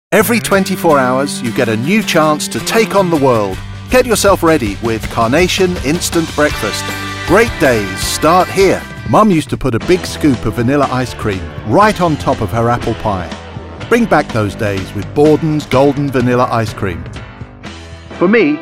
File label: commercial